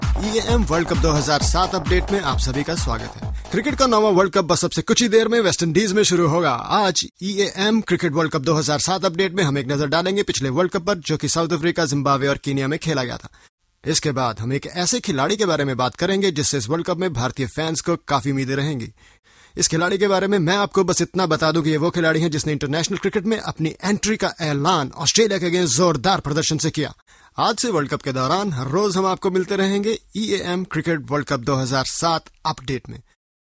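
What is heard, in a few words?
Hindi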